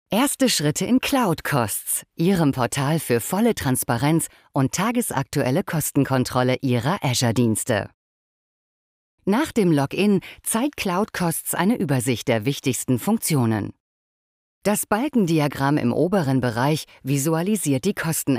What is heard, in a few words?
eLearning; Cloud-Costs; Telekom